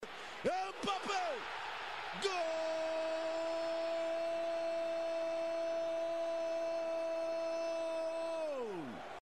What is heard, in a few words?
goal arabic; Mbappe